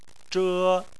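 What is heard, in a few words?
"zhe", Mandarin Chinese, first tone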